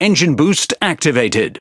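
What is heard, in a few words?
Speech, British English; Consumable Forsage Start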